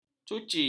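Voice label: "cuci", Malay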